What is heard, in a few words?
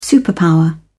"superpower", en uk